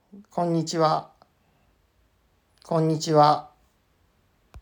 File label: "Konnichiwa", Japanese